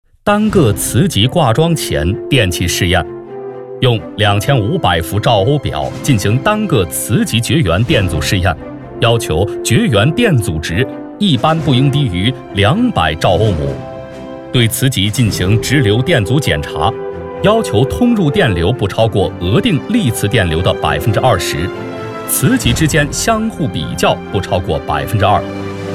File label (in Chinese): 男 课件; 单个磁极绝缘电阻实验